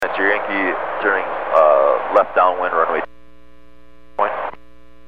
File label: Aviation 122.7; KSTE